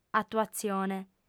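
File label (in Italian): "atuatzione", lingua sarda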